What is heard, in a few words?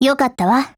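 APPRECIATE, Japanese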